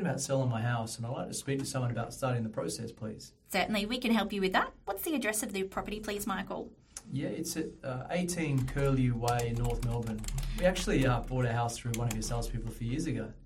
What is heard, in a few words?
real estate answering service call sample